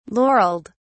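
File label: "laurelled", British English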